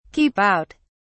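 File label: "keep out", British English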